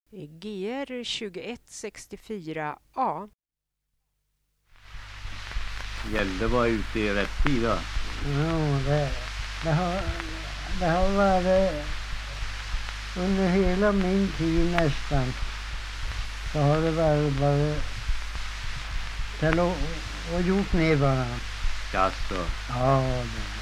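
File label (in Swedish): Norrala Hälsingland, dialekter; Folkminnen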